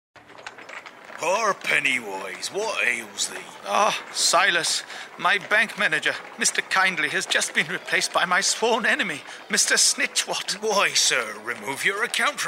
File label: Situation, Various, RP & Devon; ABBEY BANK